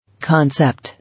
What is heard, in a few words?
"Concept", English